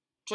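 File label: "cela", Malay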